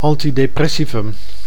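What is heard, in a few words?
"antidepressivum", Dutch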